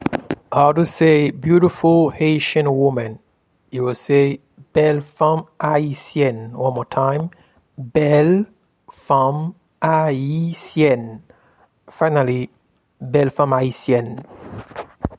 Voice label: Beautiful Haitian woman in Haitian Creole, Bel fanm ayisyen